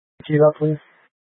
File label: Light Rail Emergency, scanner